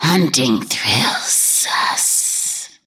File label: voice, taunt; spydyr